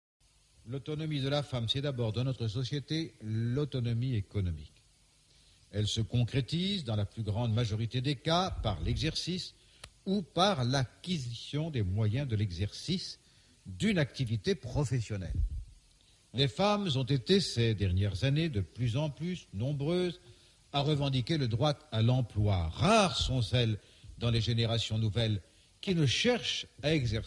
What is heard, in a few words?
french listening superior